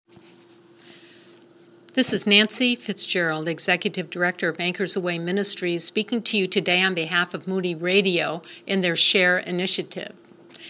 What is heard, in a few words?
promo